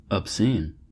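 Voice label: "obscene", US English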